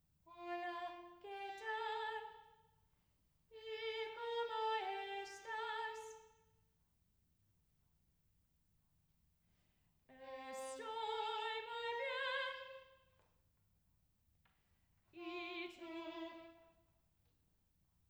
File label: spanish voice only